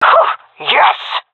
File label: cheer, vo fem; agents; Source Model Packs; Counter Strike Model Pack; CS2